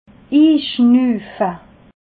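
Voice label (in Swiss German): "inschnuufe", Alsatian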